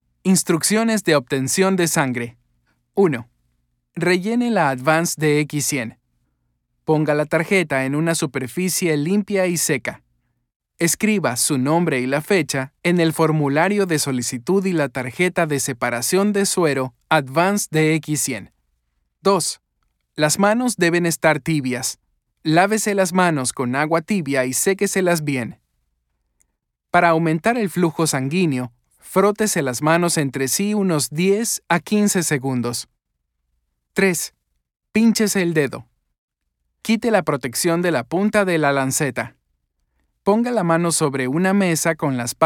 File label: spanish latin american medical narration